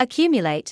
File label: "accumulate", medical english